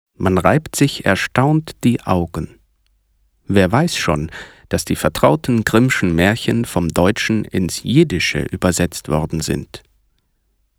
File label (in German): reportage